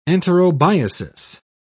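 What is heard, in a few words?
"enterobiasis", British English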